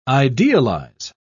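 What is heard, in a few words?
"idealise", British English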